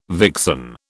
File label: "vixen", British